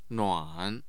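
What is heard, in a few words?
"nuan", Mandarin Chinese, tone 3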